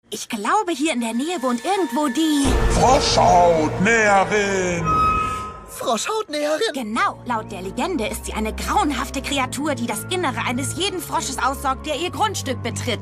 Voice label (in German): Synchron; Ivy aus Disneys Amphibia